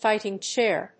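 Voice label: "fighting chair", English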